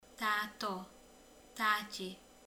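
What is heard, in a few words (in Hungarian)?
"meleg", Hungarian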